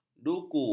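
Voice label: "duku", Malay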